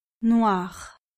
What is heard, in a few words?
"noir", French